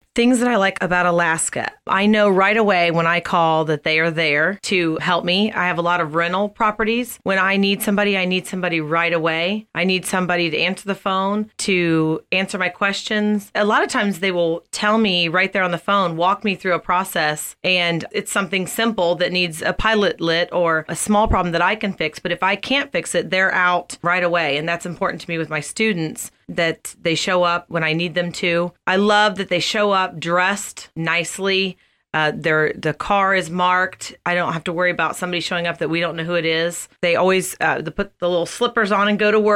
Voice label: TESTIMONIAL VO